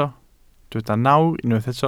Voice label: "nawr", Welsh

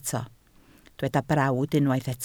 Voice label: "brawd", Welsh

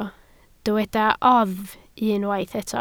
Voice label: "oddf" Welsh

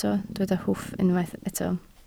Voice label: "hwff", Welsh

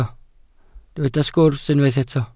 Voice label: "sgwrs" Welsh

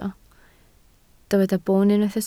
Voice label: "boon", Welsh

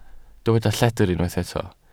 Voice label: "lledr", Welsh

